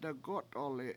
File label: "degO7t1li7" it broke split, Washo